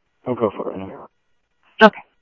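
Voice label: police department, scanner audio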